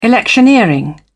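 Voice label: "electioneering", British English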